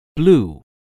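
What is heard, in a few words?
"blew", English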